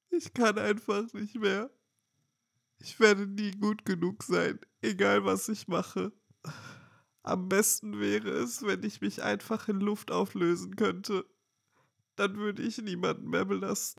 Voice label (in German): Trauer